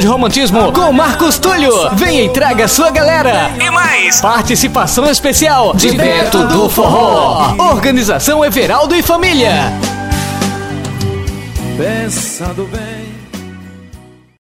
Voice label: comercial divina pizzaria

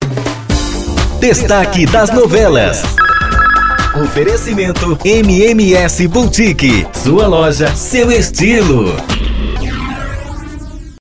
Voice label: VOZ IMPACTO GRAVE vinheta destaque das novelas para radio; programacao de radio

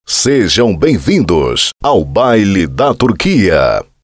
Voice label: banco de vozes vinheta carimbo para set mixado de baile funk; e abertura para dj

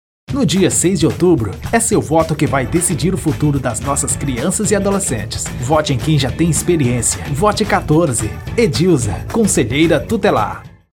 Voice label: locução conselho tutelar

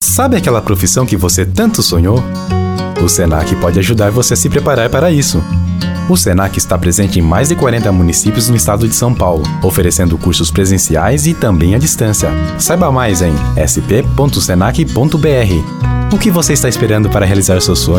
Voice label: locucao brasil

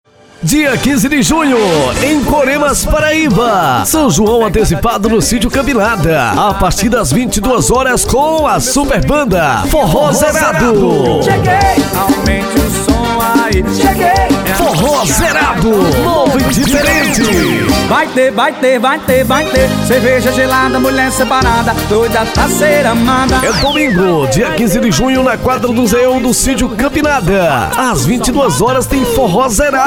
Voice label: locutor forro; st campinada

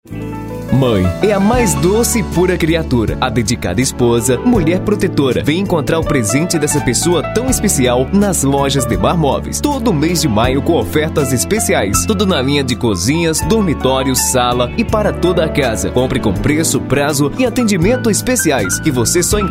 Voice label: spot moveis; demar moveis

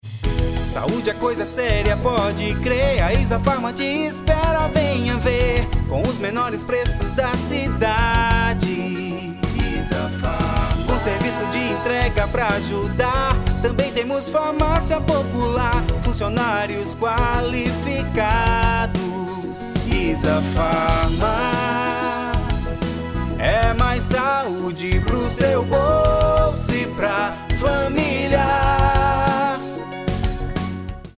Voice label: jingle farmacia

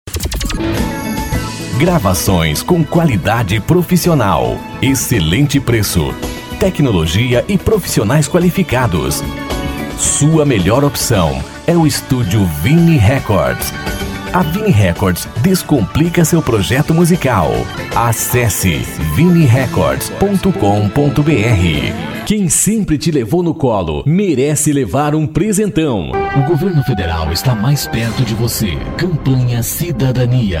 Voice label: locucao brasil, demo locucao; banco de vozes

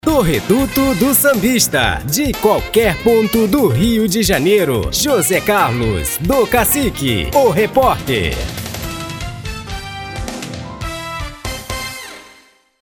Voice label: locutor reporte

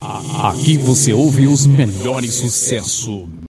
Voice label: aqui vc ouve os melhores sucesso sem fundo voz

